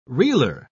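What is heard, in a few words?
"reeler", English, uk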